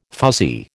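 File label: "fuzzy", American English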